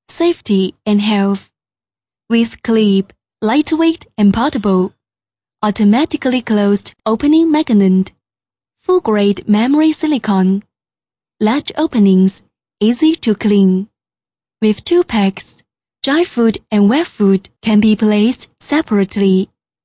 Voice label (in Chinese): 英文 产品介绍, 女